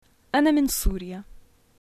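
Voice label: I am from syria, Syrian arabic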